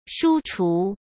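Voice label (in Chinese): "书橱", Mandarin Chinese; chinese-flashcards; hsk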